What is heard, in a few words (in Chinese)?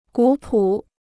"古朴", Chinese